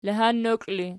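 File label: "lahannokl" ergative, Archi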